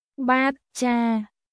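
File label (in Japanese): クメール語（カンボジア語）の日常会話基本フレーズ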